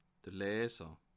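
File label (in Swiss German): "leser", Alsatian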